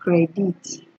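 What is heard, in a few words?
"credit", Nigerian Pidgin, female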